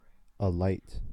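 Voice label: "alight" US English; pronunciations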